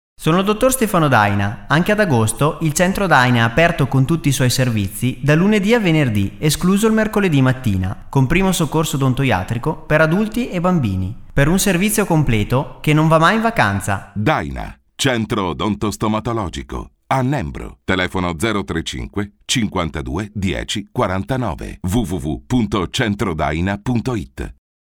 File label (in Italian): radio; aperti agosto; no music; centro daina